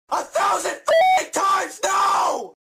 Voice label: Guy Raging; Smg4